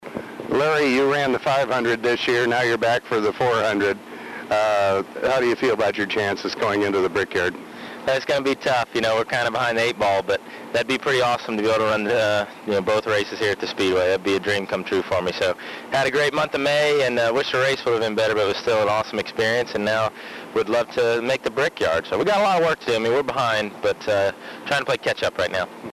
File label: english